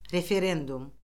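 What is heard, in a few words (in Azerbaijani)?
"referendum", Azerbaijani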